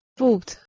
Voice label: "fot", Anglo Saxon

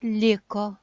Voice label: "liko", Lithuanian

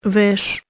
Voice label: "vesh" Albanian

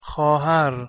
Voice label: "xohar", Persian

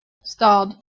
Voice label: "stad", Proto Germanic

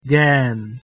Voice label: "gen", Proto Germanic

